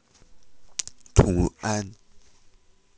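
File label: "tu2 an4", Mandarin Chinese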